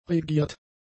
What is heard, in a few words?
"regiert", German; adjectives